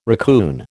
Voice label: "racoon", American